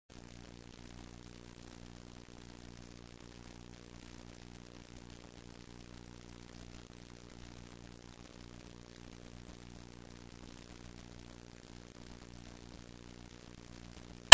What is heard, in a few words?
Dialog, German; DLI Basic German Vol 04 Less 40-01 Dialog Missing; DLI German Basic Course